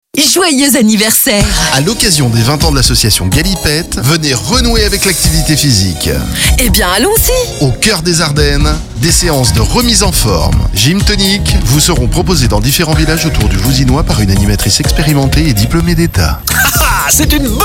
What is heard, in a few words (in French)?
Spot, voix off; Anniversaire Galipette